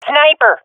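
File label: sees sniper, swat female; vo; Counter Strike Model Pack; CS:GO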